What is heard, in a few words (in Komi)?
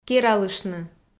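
"кералыштны", Komi, female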